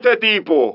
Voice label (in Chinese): 拉丁裔海滩中年男性; 罪恶都市